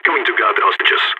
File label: going to guard hostages, balkan; Counter-Strike Global Offensive